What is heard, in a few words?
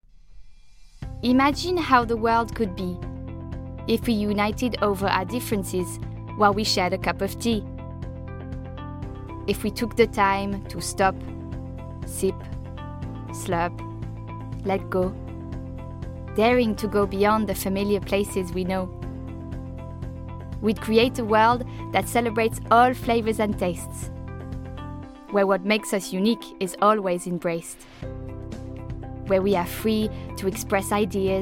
French voiceover